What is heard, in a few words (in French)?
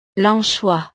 "l anchois", frances